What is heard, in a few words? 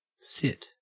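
"sit" English, Australian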